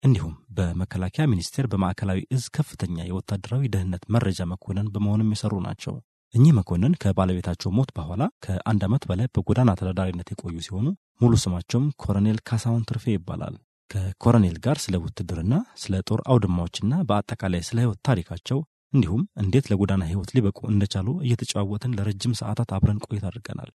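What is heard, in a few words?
Amharic, male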